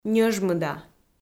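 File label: "ньӧжмыда", Komi, female; audio dict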